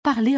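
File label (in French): "parler", French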